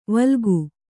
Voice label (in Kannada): "ವಿಲೋಕ", kannada